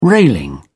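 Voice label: "railing", British English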